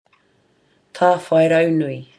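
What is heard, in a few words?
"tawhairaunui", Māori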